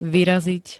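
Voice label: "vyraziť", Slovak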